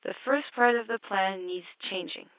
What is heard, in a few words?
Echo Speech